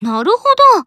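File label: Voice Emo Yes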